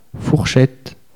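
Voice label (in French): "fourchette" French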